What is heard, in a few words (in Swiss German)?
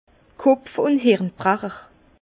"kopf", Bas Rhin; vin